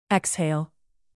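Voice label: "exhale", English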